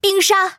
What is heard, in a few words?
"sha ice", female